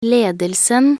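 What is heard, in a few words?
"ledelsen", Norwegian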